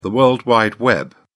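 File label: "world wide web", British English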